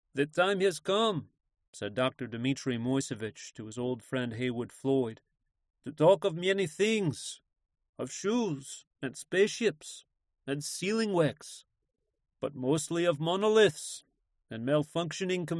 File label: Accent Russian; Audiobook Narrator